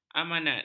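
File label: "amanat", Malay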